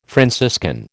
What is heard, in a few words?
"Franciscan", American